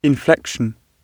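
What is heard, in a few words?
"inflection" British English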